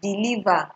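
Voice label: "deliver", Nigerian Pidgin, female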